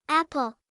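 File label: "apple", English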